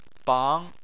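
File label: "bang" Chinese, second tone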